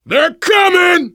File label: incoming, voice, biker; Left 4 Dead 2; left4dead2 dlc3; survivor